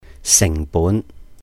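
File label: "sìhng bún", Cantonese; cost; 成本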